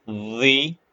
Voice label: short voyels arabic alphabet